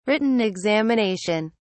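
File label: "written examination", English, British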